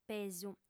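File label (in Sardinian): "pesu", female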